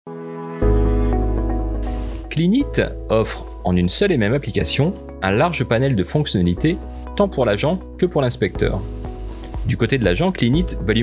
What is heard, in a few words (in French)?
VOIX OFF CLEAN IT